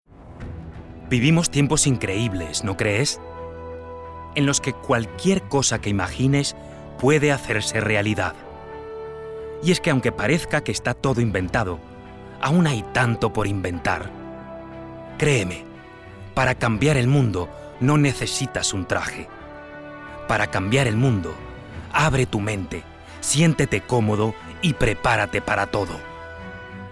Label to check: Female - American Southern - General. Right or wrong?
Wrong